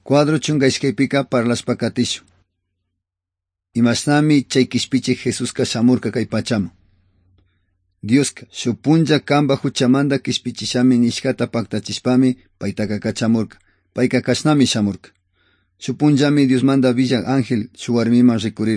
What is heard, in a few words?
good news quichua otovalo